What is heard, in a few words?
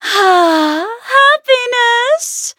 levelup, en